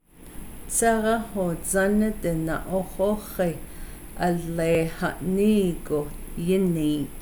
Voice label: I heard they said that there's a rodeo at W, navajo; clauses